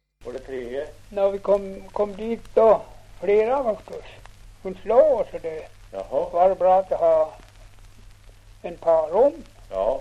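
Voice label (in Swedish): Ovansjö Gästrikland, Svenska sagor, dialekter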